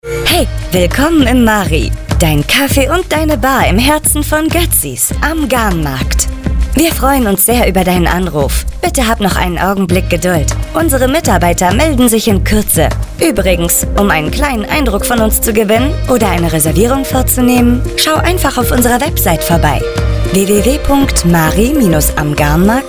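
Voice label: German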